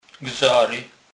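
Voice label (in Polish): "gzary", Kurpie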